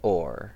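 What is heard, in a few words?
"oar", US English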